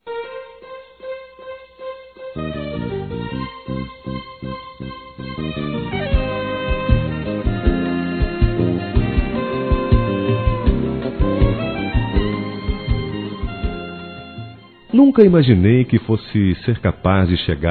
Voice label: paquera, masc; Telemensagens; Conquistas Cantadas paqueras; Telemensagens 2017

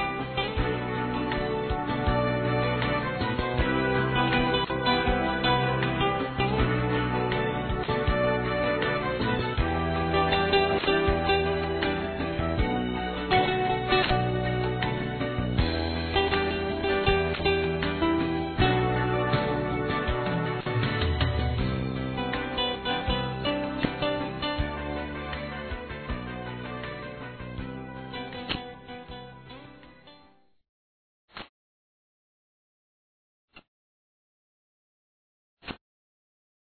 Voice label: PSICÓLOGA M; Homenagens; masc

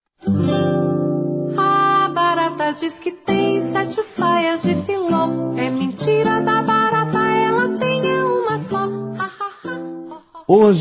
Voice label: Afilhado Criança Masculino; Telemensagens 2017; Aniversários; Aniversário Infantil

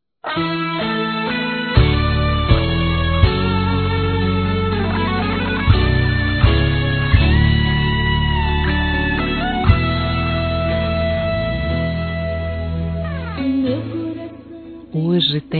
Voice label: Natal Romantico Feminino; Telemensagens; Natal e Ano novo

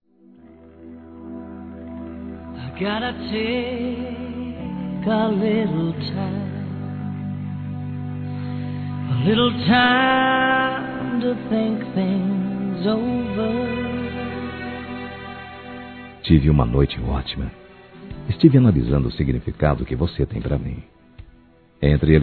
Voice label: Aniversário Romântico Masculino, Telemensagens

